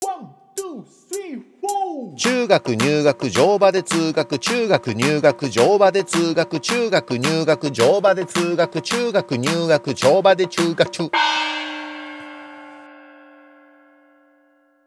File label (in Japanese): 早口言葉リズム（中級編）